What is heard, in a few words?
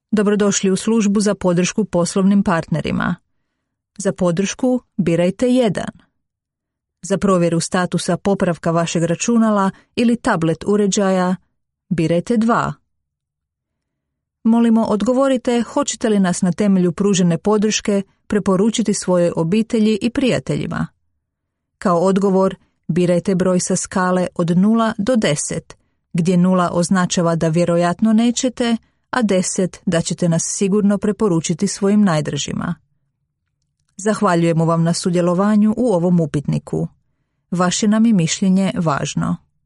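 Croatian female Corporate; voice over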